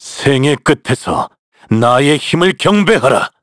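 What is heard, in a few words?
Vox Skill, Korean; Shakmeh; kings raid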